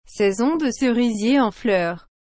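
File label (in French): "saison de cerisier en fleurs", French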